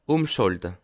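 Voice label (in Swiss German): "umschalte", Bas Rhin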